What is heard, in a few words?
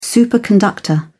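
"superconductor", English, uk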